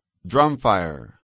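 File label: "drumfire", English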